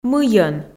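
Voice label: "мыйӧн", Komi, female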